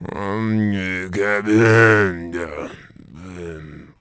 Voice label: Mumble; Zelda